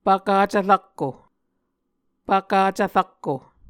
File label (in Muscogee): "pvkacv rakko", Muscogee